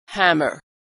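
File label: "hammer", American English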